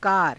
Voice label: "kaara", Hindi